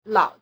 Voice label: "lao3", Chinese